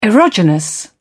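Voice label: "erogenous", British English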